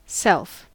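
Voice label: "self", American English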